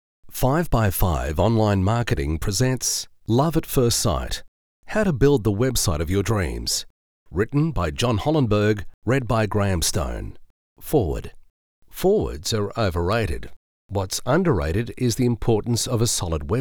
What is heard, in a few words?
E LEARNING